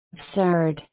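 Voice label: "absurd", USA, female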